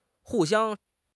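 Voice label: "hu4 xiang1", Chinese; HSK; HSK4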